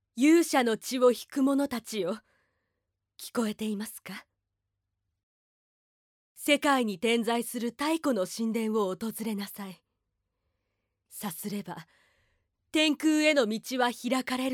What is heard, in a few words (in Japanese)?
アニメ